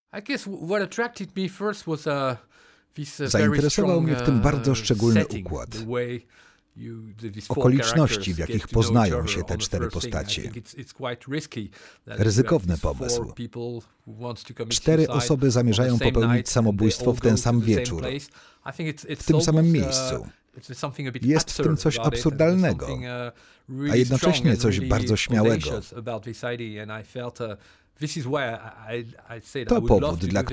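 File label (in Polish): VOICEOVER, male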